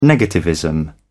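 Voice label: "negativism", British English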